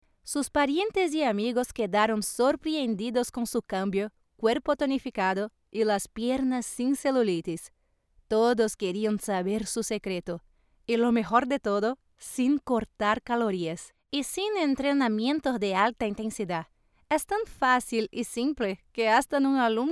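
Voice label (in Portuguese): Português - Brasileiro, Espanhol - Trecho carta de vendas (VSL), Feminino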